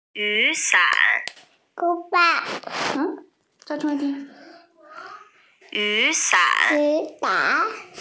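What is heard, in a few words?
"yu3 san3", Mandarin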